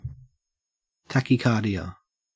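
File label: "tachycardia", Australian English; pronunciation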